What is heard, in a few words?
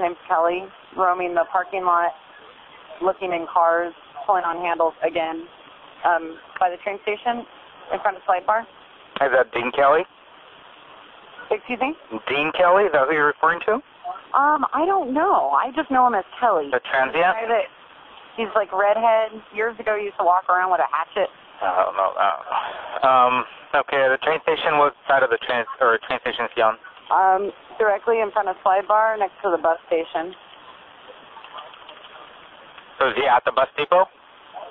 police call; kelly thomas